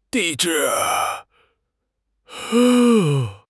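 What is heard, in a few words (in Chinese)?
"地质", Mandarin Chinese; HSK6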